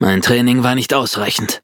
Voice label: defeat, de; Cookie Run